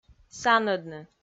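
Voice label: "санӧдны", Komi, female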